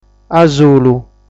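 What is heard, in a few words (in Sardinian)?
"Asulu", Sardinian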